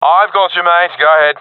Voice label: covering friend, professional; vo; Counter Strike Model Pack; CS:GO